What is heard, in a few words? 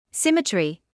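"symmetry", British English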